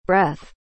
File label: "breath"